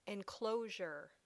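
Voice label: "enclosure", English word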